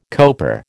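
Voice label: "coper", American English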